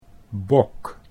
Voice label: "bok", Dutch

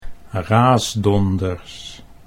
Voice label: "raasdonders", Dutch

